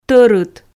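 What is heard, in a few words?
"торыт", Komi, female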